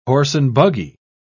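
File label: "horse-and-buggy", British English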